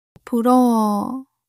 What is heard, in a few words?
sulk, monologue